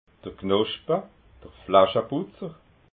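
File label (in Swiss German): "knoschpa", Alsatian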